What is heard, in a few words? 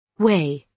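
"weigh", British English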